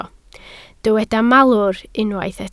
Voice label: "malwr", Welsh

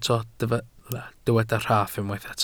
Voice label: "rhaff", Welsh

